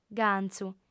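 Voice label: "gantzu", female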